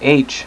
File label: "h" male, voice alphabet; Web Clip Empire 150,000